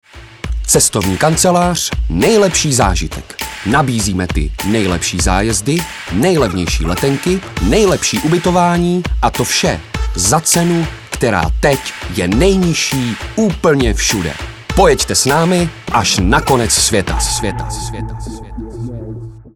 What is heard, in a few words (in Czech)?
reklama cestovní kancelář